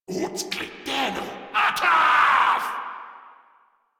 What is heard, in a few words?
Player Spotted, Grineer Male; Warframe